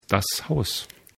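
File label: "Haus", German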